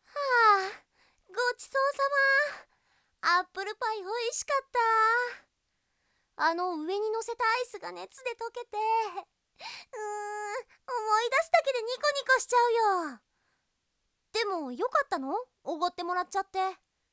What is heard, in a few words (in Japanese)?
ボイスのみ